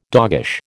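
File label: "doggish", American English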